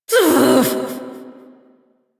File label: Frustration